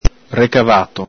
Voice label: "recavato", Venetian

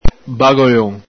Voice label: "bagolon", Veneto

